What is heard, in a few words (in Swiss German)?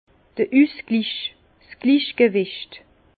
"usglich", Bas Rhin; vin; équilibre